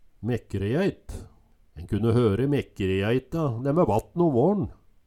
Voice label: mekrejæit, Numedalsmål

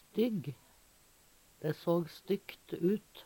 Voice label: "stygg", Numedalsmål; Adjektiv

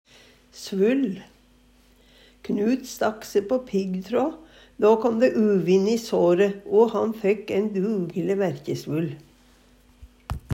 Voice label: svull, Numedalsmål

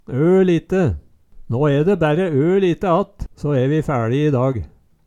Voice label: "ølite", Numedalsmål

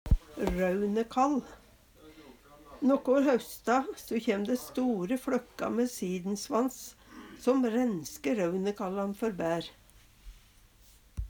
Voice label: røunekall, Numedalsmål

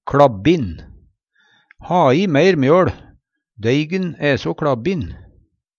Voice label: kLabbin, Numedalsmål; Ha i meir mjøL. Deigen æ so kLabbin.; Adjektiv

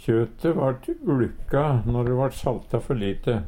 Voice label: "uLka", Numedalsmål; Kjøte vart uLka når de vart salta før lite.; Adjektiv

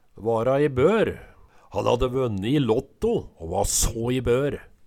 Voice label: vara i bor, Numedalsmål; vara i bør

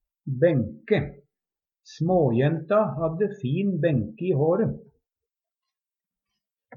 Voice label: benke har skille, Numedalsmål; Substantiv hokjønn

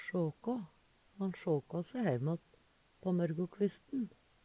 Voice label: "sjaka", Numedalsmål; Han sjåkå se heimatt på mørgokvisten.

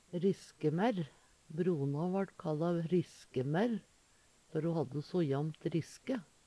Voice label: riskemaerr, Numedalsmål; Brona vart kalla riskemerre før ho hadde so jamt riske.

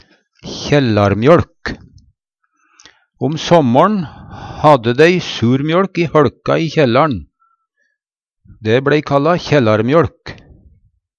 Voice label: kjellarmjøLk, Numedalsmål

